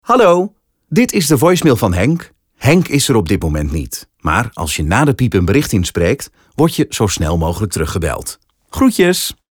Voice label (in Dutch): Male Persoonlijk, voicemail